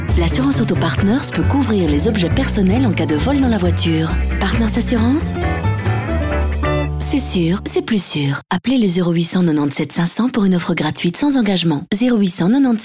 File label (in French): Voix F